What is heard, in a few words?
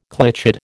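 "clichéd", American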